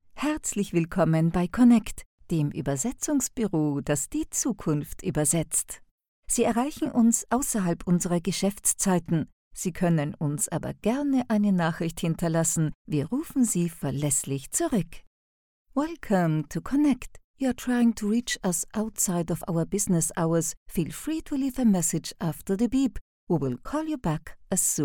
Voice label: German F IVR; voice over